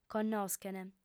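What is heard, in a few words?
"connoschent", female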